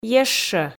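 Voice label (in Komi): "ещӧ", Komi, female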